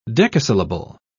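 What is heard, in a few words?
"decasyllable", English, British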